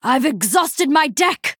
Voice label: Female Night Elf

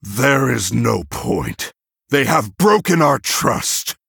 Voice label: Male Human; Hero; Varian; Valeera Mission5 Exchange

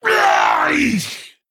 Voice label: Male Troll Death

